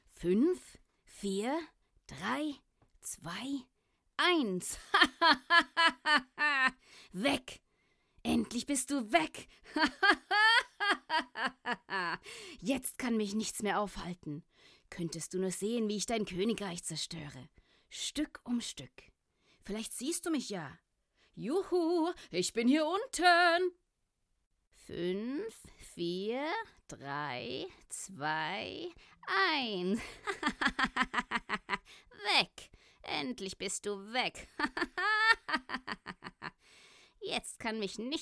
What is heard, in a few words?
German, F, Gaming